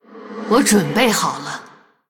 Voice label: Female Human Attack, zh